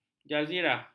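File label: "jazirah", Malay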